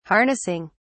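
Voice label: "harnessing", British English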